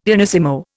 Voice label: "pianissimo", Indian; Dictionary